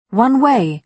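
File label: "one-way", British English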